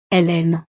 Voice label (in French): "elle aime", frances